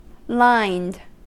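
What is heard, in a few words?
"lined", American English; pronunciations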